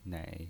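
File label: "nei", pinyin voice, Mandarin Chinese, third tone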